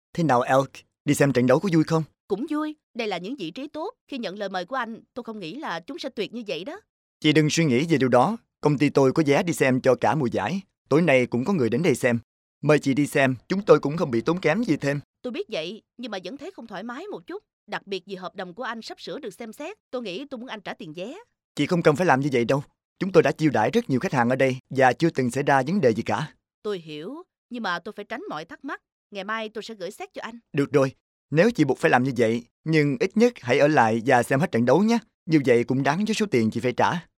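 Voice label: Vietnamese, male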